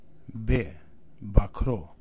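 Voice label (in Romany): "Bakhro", Romani; Romska-alfabetet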